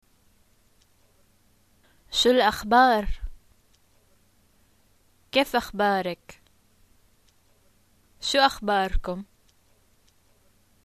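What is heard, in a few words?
what's new, Jordanian Arabic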